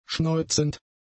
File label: "schneuzend", German; adjectives